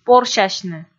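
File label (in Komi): "порсясьны" Komi, female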